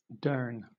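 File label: "durn", British English; pronunciation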